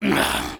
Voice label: negative vocalization, fr; Soldier